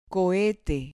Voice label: "cohete", Spanish

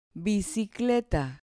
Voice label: "bicicleta", Spanish

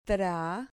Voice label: "tra", Spanish syllable

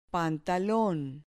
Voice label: "pantalon", Spanish

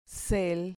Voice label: "cel", Spanish syllable

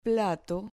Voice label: "plato" Spanish

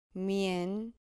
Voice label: "mien", Spanish syllable

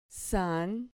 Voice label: "san", Spanish

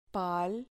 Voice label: "pal", Spanish syllable

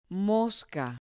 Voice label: "mosca", Spanish

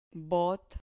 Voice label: "bot", Spanish

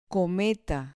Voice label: "cometa", Spanish

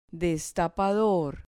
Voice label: "destapador", Spanish

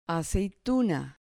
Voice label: "aceituna", Spanish

